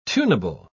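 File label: "tunable", British English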